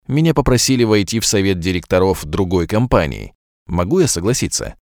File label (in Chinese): 俄语宣传片 叙述